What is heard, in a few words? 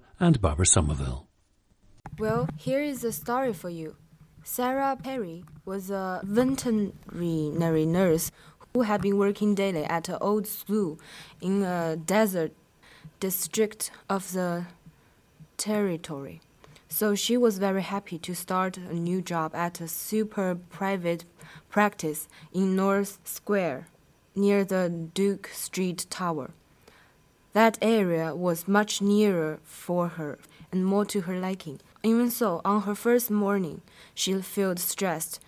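shanghai, dialects of English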